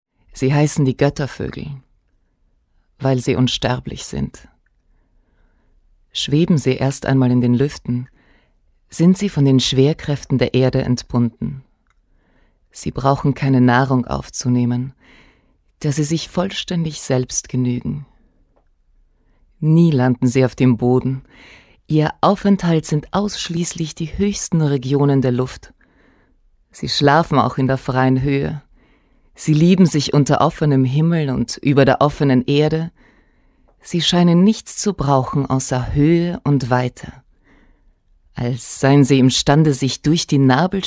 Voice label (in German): Hoerbuch